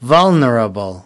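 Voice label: "Vulnerable", American English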